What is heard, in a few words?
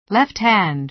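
"left-hand", American English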